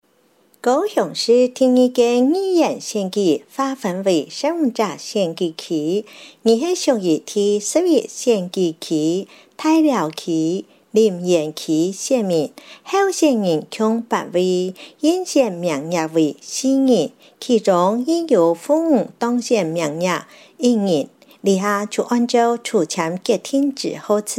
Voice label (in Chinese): 客語; 高雄市; 高雄市市長議員有聲選舉公報; 第十一選區